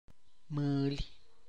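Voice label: Tlacochahuaya Zapotec; money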